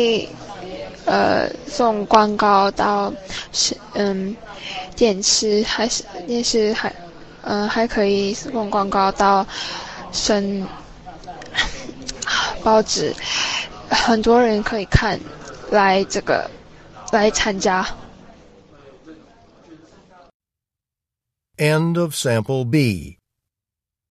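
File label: chinese conversation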